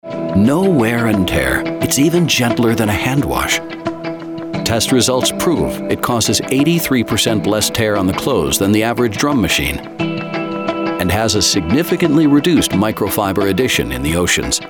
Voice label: Narration Corporate

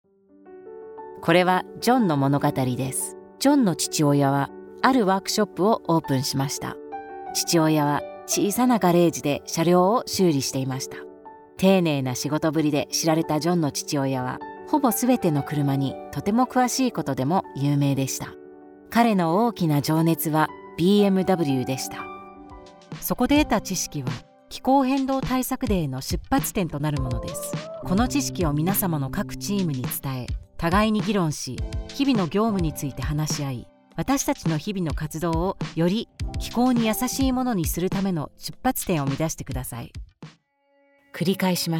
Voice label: Japanese

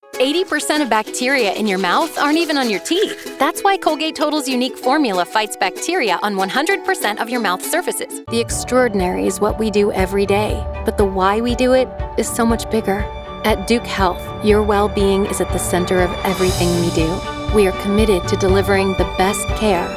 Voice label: Commercial Clear Honest

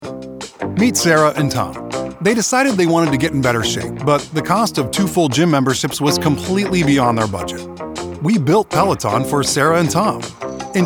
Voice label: Branding Dynamic Connected

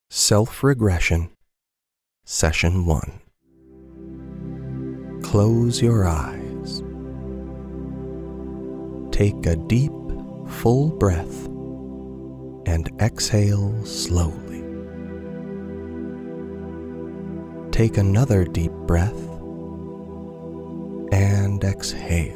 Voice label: Hypnosis Meditation Music

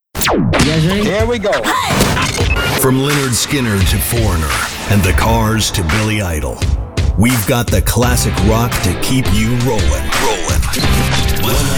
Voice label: Imaging Versatile and Energetic

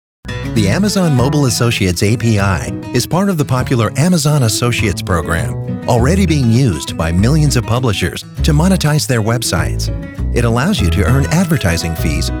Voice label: Conversational Trustworthy Narration

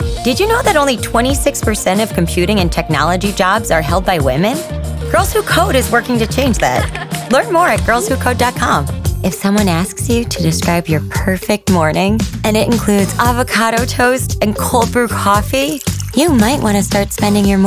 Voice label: Commercial

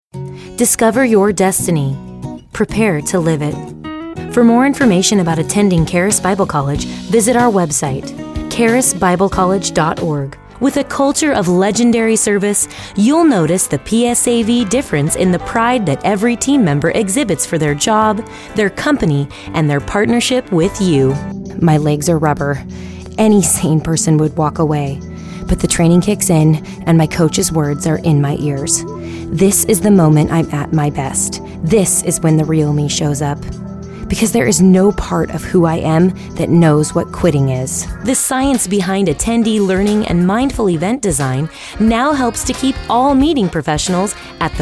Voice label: Narration

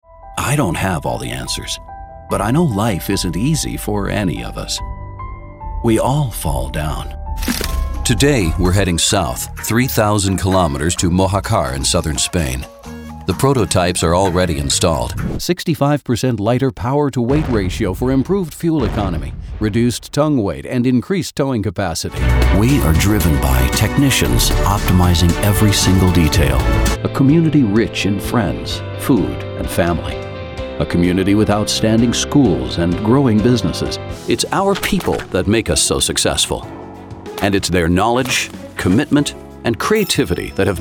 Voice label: Narration Corporate Professional

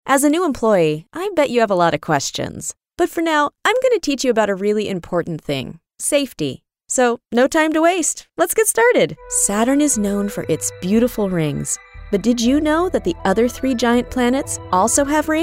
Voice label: eLearning Narration

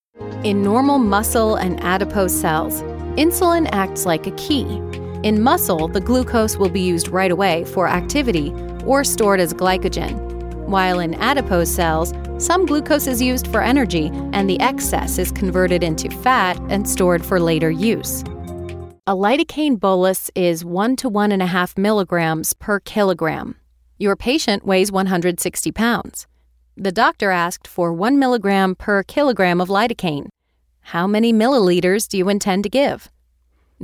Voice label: Medical Narration Warm Sincere

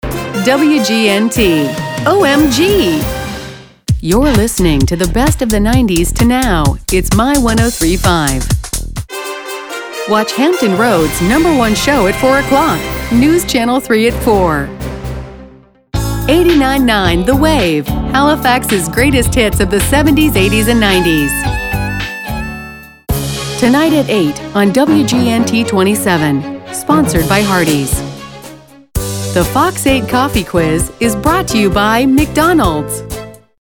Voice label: Imaging

